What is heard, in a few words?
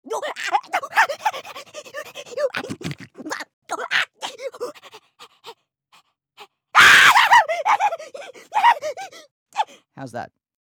Outtake Grunts Panic run away